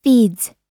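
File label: "feeds", American English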